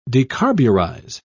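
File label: "decarburize", English, British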